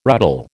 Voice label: "ruddle", American